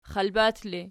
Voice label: xalbat erg, archi